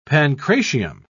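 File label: "pancratium", British English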